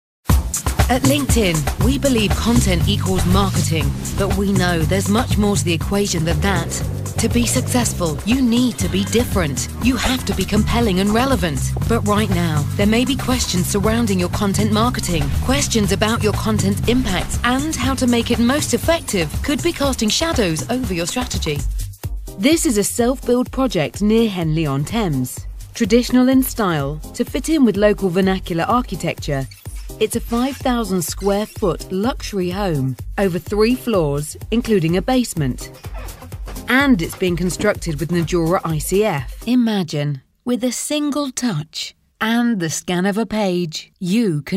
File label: Corporate Explainer